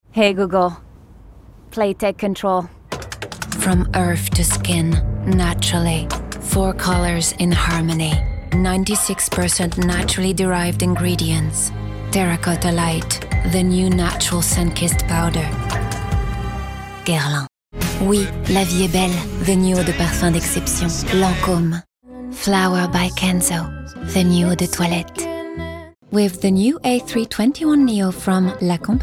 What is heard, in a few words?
English Commercials